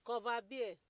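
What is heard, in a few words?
"coverbeer", Nigerian Pidgin, female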